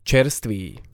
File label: "cerstvy", slovencina